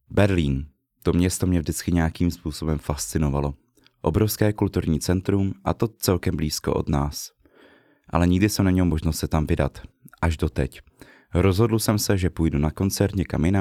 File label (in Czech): narace